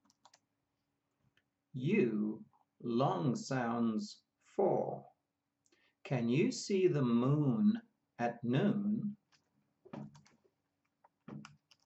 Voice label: U long sounds, pronunciation of australian english